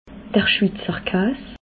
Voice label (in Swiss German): "schwizer kaas", alsace